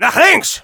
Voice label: Demoman head left, de; "Nach links!(lauter)"; Demoman voice commands/de

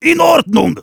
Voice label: Heavy yes, de

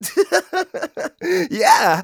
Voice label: positive vocalization; Scout

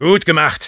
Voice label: Sniper good job, de

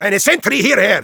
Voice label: needs entry, de

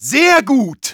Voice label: Medic cheers, de; "Sehr gut!"

